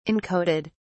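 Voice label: "encoded", British English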